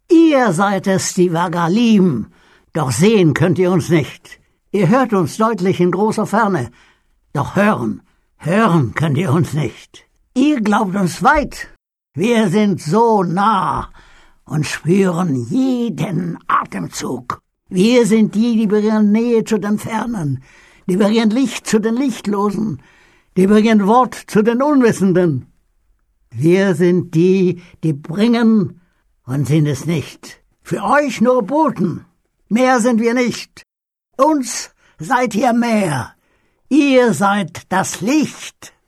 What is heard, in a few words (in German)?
aggressiv, maenner